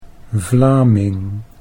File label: "Vlaming", Dutch